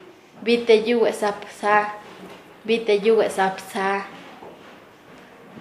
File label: "cobijarse con otra persona", Nasa Yuwe; paez